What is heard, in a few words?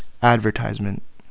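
"advertisement", Philippines, male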